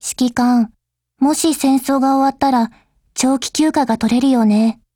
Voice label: DIALOGUE, JP; GepardM1